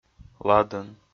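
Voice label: "ладӧн", Komi-Zyrian, male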